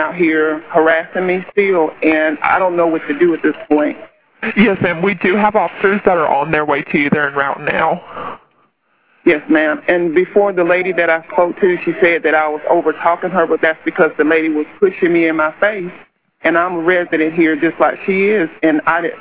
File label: 911 call